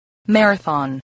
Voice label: "marathon", spelling voice